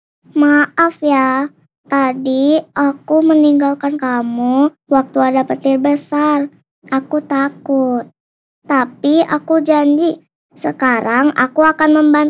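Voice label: child CHARACTER, soft, gentle voice; INDONESIA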